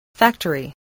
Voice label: "factory", voice

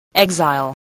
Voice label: "exile", voice

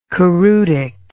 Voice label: "choreutic", English

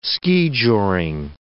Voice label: "skijoring", words

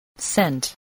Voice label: "cent", spelling

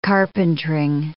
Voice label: "carpentering", English

